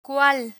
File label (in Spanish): "cual", Spanish; interrogative words